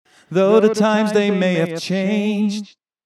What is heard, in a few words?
echorec vocal